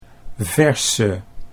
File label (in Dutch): "verse", Dutch